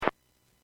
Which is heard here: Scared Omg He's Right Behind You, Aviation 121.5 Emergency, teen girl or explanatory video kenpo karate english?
Aviation 121.5 Emergency